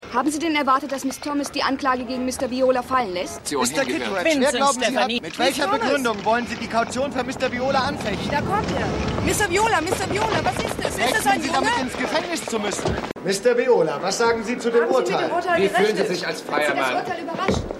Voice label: Reporterin Reporter

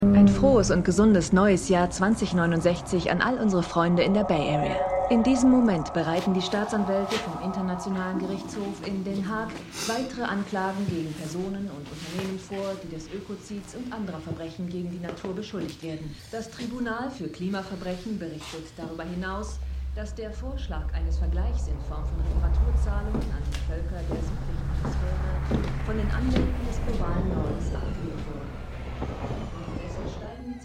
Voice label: Nachrichtensprecherin; Extrapolations

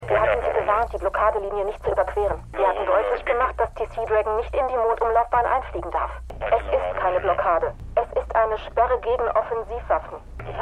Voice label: Simultanuebersetzerin; For All Mankind

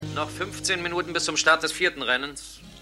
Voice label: Kommentator; Maennerwirtschaft

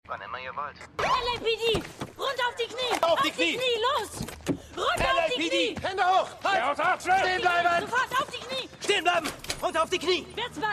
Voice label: Funk Polizistin Polizist; Bosch

